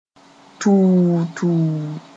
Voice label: "tuu-tuu", moore